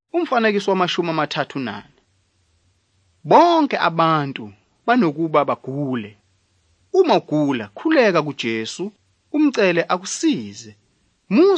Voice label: zulu bible; good news